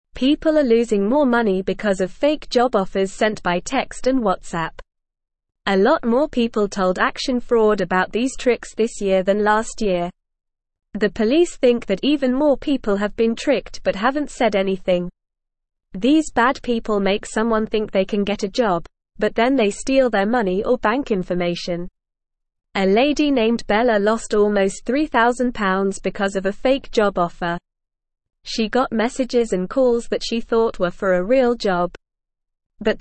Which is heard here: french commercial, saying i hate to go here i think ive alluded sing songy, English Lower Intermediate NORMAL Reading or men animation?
English Lower Intermediate NORMAL Reading